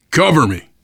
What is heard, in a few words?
cover me, voice, biker; Left 4 Dead 2; survivor